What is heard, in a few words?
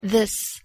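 "this", English pronunciation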